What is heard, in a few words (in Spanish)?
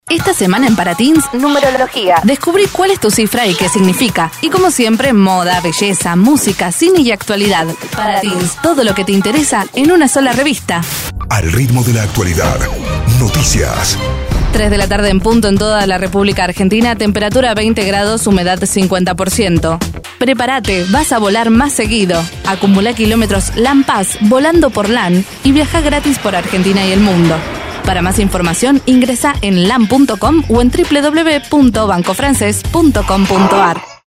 Jovial Medios Amena